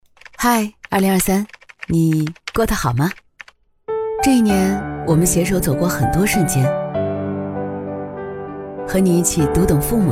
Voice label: 走心温暖; 辽宁联通

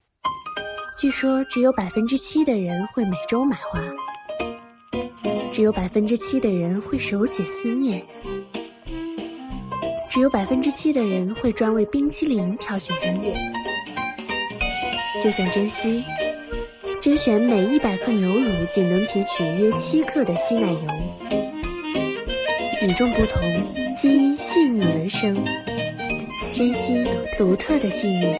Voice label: 独白广告

